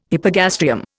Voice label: "hypogastrium", Indian